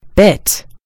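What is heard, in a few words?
"bit", American English; gcompris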